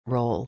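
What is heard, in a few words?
"role", English pronunciation; róul; (俳優の)役、役割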